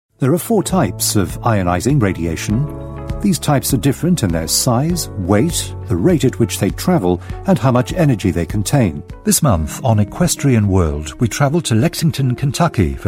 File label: narrative montage